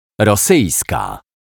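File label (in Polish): "rosyjska", Polish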